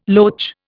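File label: "loach", Indian English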